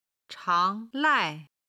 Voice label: "chang2 lai4", Chinese

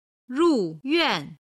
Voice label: "ruyuan", Chinese

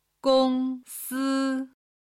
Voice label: "gong1si1", Chinese

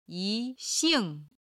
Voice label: "yi2 xing4", Chinese

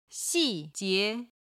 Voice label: "xi4 jie2", Chinese

